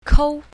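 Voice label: "kou1", Chinese pinyin, south, female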